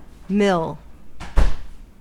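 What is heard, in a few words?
"mill", American English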